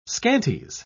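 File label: "scanties", British English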